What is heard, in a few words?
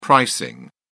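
"pricing", British English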